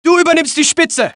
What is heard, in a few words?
German; mohaa; dialogue